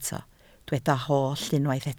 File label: "holl", Welsh